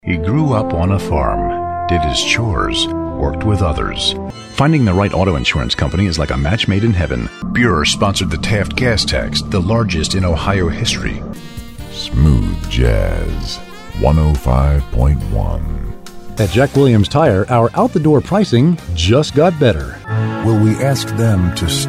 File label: Commercials